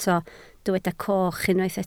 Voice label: "coch", Welsh